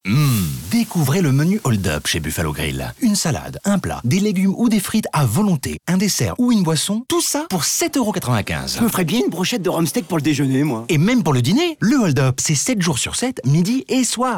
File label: RADIO; BUFFALO GRILL; HOLD UP